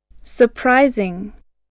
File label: "surprising", English